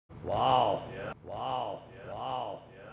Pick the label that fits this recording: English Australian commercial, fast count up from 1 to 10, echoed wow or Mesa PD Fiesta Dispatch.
echoed wow